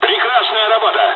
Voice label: dialogs russian; War Thunder

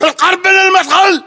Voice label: landmark near ext doorway, english battlechatter

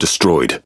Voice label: Pirate Speech Pilots Fighter Destroy; World Of Warships

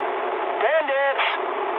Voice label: eng enemy detected mood med, speech eng

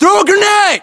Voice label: order action grenade, US; localized english; battlechatter

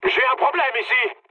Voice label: aircraft damaged mood med, speech fr; War Thunder

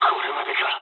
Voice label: aircraft destroyed mood low, speech jp

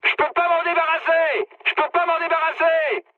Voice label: aircraft damaged mood high, speech fr

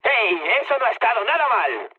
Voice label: trick, mood med, speech; War Thunder

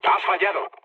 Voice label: bomb failure, mood low, speech; War Thunder

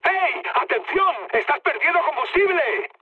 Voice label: tank damaged, mood high, speech; War Thunder

